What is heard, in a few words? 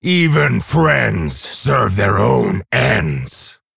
Male Orc Play